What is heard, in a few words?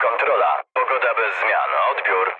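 Polish chat; War Thunder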